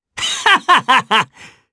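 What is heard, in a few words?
Vox Happy Japanese; kingsraid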